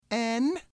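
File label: "N", English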